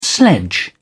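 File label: "sledge", British English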